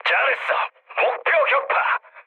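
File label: Korean bomb success mood low, speech; War Thunder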